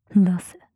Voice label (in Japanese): 出せ 強く 極近 右; マゾオスのあなたに敗北射精の快楽を叩き込む音声